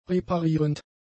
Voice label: "reparierend", German; adjectives